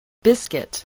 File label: "biscuit", spelling